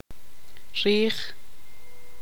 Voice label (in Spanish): "corteza", tzutujil